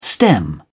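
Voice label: "stem", British English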